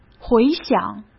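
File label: "回想", Mandarin Chinese; hsk; chinese-flashcards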